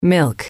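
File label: "milk", English, female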